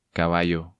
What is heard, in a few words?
"Caballo", Spanish